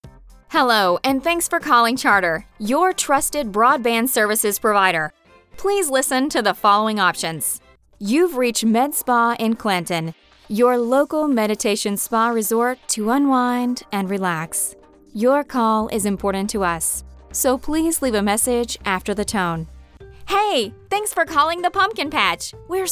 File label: english american ivr